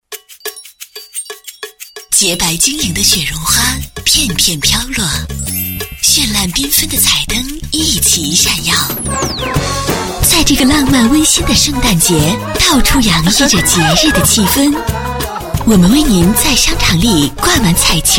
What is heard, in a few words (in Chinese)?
女-片花-CD中插